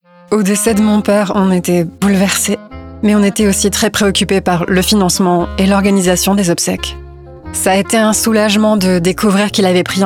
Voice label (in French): pub comedie preoccupees; ROC ECLERC